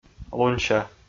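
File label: "лунся", Komi, male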